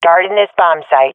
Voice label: guarding bombsite, swat fem; vo; Counter Strike Model Pack; CS:GO

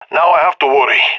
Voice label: scared; Counter Strike; CS:GO; leet epic

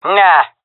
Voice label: negative, fem; player vo; Counter Strike Model Pack; CS:GO

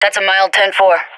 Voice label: affirmation, vo agents; fbihrt epic

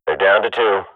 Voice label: radio bot kill count, swat; Counter Strike Model Pack; CS:GO

